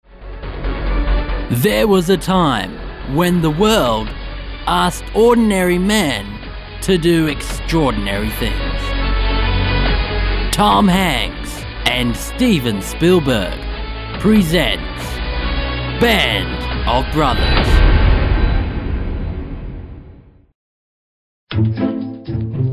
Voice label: Movie Trailers

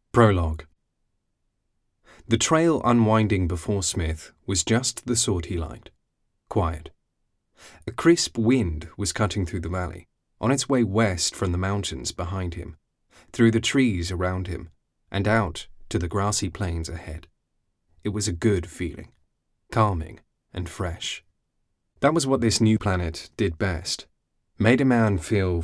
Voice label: Audiobook

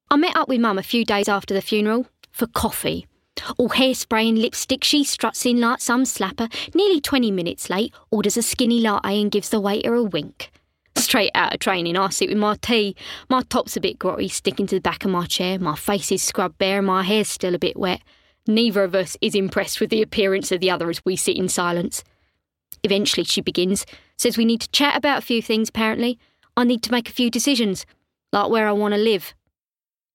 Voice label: Narrative

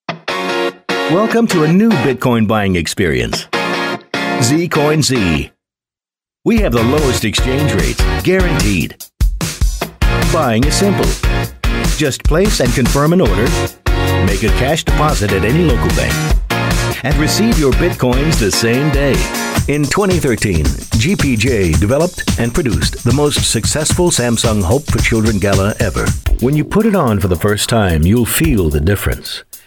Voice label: Corporate

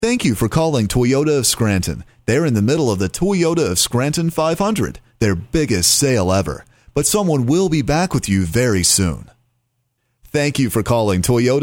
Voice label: On Hold IVR; VoiceSmith

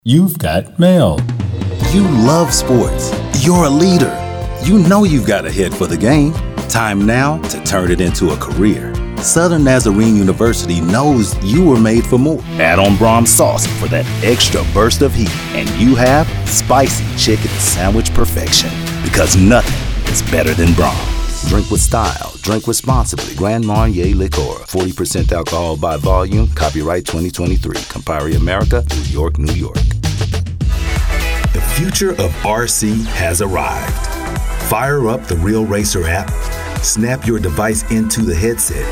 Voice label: Commercial; American

